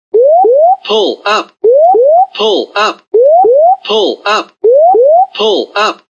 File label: md 11 gpws warning pull up